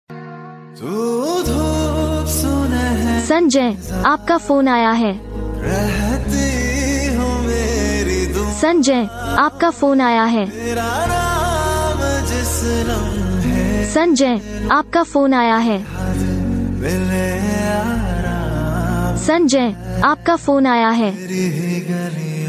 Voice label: sanjay name ringtome sanjay name hindi ringtone hindi love ringtone